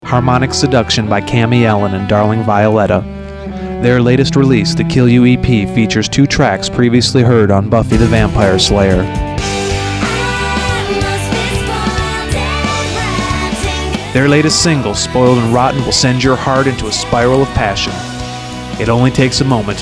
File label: Commercial